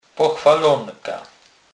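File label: "pochwalonka", Polish, Kurpie dialect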